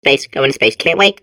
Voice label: babble; portal2